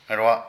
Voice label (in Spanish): Boca, Zapoteco de Petapa; Cuerpo; Vocabulary